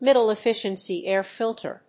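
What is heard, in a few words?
"middle efficiency air filter", English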